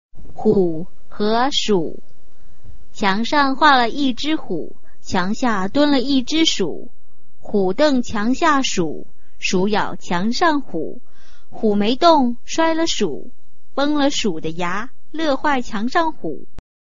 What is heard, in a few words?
chinese tongue twister